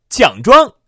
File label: Call, Man; SoloOx